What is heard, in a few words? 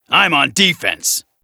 VO en